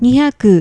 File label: "nihyaku", numbers